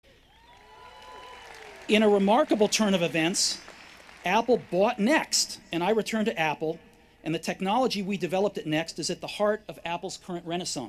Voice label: Commencement Address; Steve Jobs; 2005; Stanford